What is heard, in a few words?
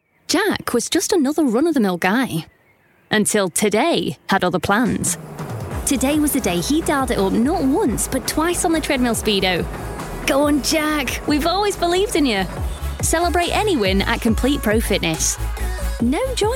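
northern uk commercial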